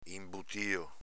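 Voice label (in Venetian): "inbutio", Veneto dialect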